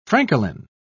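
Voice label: "francolin", English, British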